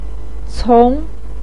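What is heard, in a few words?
"cong2", Mandarin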